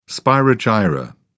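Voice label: "spirogyra", British English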